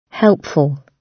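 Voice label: "helpful", British English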